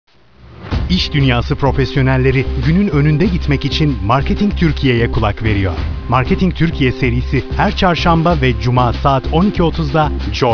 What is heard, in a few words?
PROMO; JOYFM